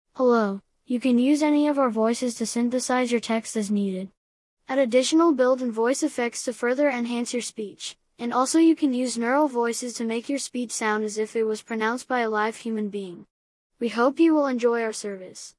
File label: Male child Neural; Kevin